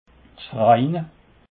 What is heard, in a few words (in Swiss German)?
"verein", alsace